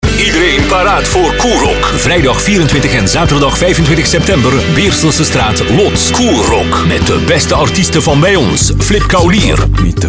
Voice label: commercial